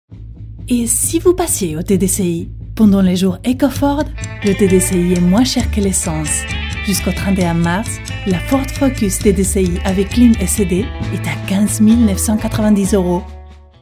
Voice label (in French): Francais dynamique promo conseil; Commercial